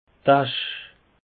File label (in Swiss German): "asch", alsace; peche